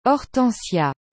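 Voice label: "hortensia", French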